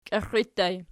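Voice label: "kixxii" ergative plural, Archi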